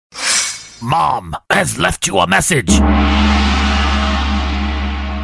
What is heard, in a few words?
Mom Message